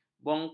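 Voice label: "bongok", Malay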